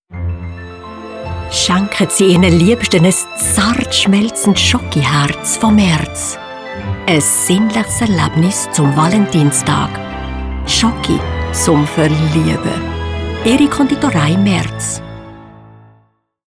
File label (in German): Werbespot; Merz